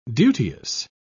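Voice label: "duteous", British English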